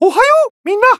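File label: Voice Emo Login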